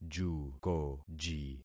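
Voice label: "jukoji", concatenated syllables; Affixing experiment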